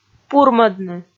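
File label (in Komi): "пурмӧдны", Komi, female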